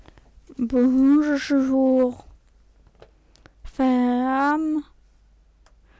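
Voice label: cerebellar ataxia speech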